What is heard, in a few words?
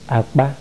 low high, ibibio